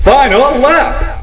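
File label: "final lap", spoken; Carma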